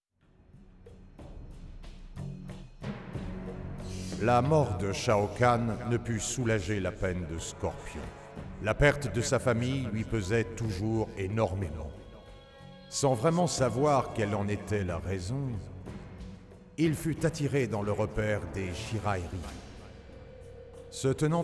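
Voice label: music voice over endings French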